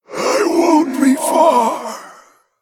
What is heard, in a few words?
Male Reliquary Of Souls Death